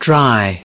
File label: "dry"